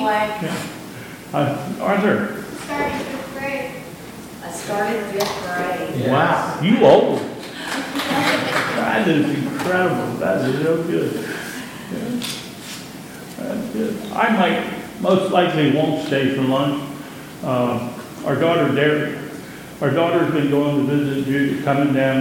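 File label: Announcements, church service; Dinner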